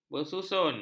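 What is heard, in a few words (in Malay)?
"bersusun", Malay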